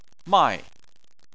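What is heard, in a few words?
"mai", Chinese, fourth tone; Chinese Surnames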